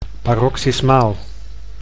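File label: "paroxismaal", Dutch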